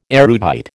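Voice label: "erudite", American English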